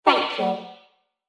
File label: Robot Voice Thank You; Robot Sound Effects; Sci-Fi Sound Effect